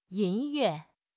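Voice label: "yin1 yue4", Chinese; HSK3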